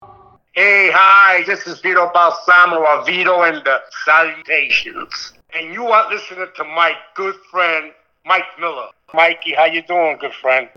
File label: promo